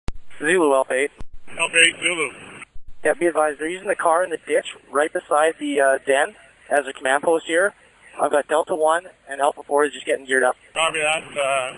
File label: greyhound police scanner; bus passengers beheading